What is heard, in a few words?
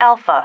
"alpha", female